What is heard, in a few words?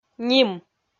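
"ним", Komi-Zyrian, female; kpv audio dict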